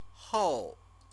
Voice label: "hou", Chinese